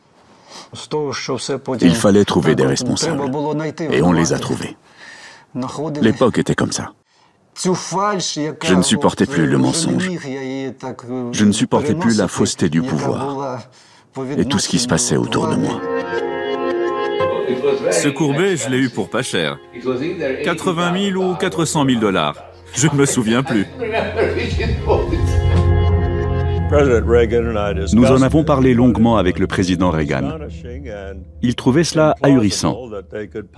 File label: Voice Overs